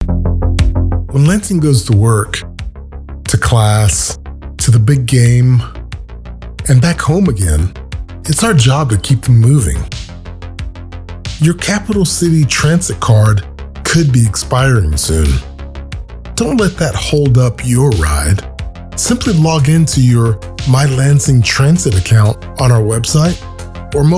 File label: English Commercial Practice